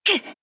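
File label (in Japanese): 「くっ！」, 男の子 王道系少年主人公 戦闘ボイス ダメージ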